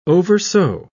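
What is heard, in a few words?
"oversew", English, British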